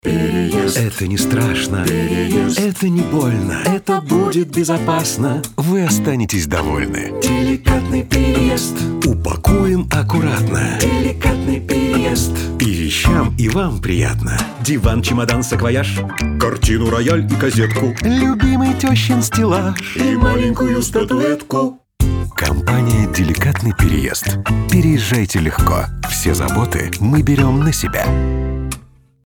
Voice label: radio; Delikatnyy Pereezd